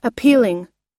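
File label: "appealing", English pronunciation; long i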